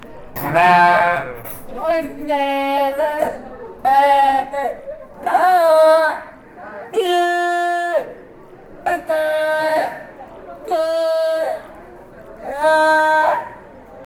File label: "hola hola" spanish